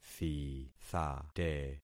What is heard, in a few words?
"thithate", concat syllables; Affixing experiment